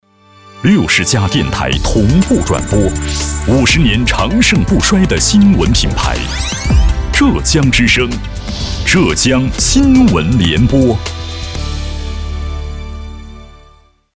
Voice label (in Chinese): 男 电台 新闻联播; 浙江新闻联播